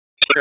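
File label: EMS Dispatch, p25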